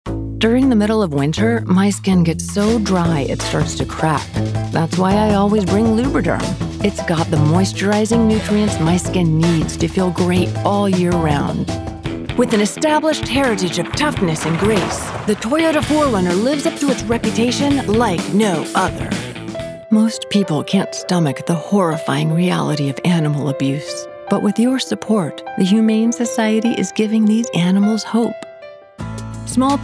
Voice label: Commercial VO